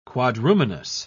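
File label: "quadrumanous", English, British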